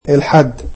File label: "Sunday", egyptian